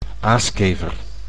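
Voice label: "aaskever", Dutch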